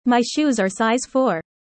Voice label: user fast; how tall